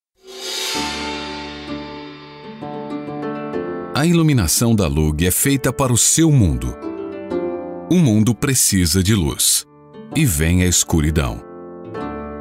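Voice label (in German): Portuguese Dynamisch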